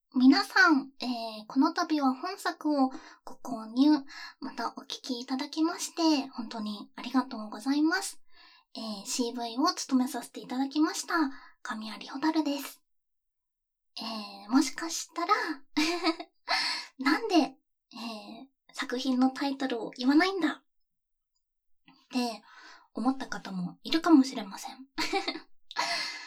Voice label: フリートーク

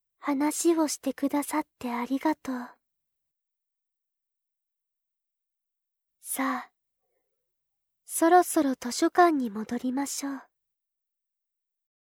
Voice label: なでなで おやすみなさい BGMなし, ASMR; 解除 安眠

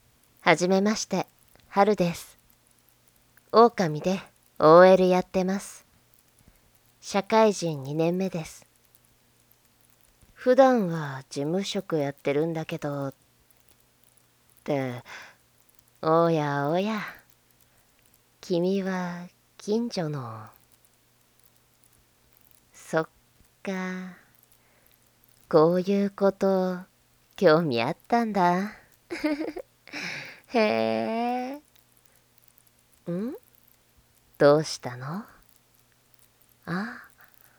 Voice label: ASMR, 自己紹介; ハル姉さん; ご近所オオカミお姉さん・社会人2年目OLさん; いめくらや自己紹介

